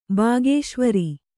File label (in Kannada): "ಬಾಗೇಶ್ವರಿ", kannada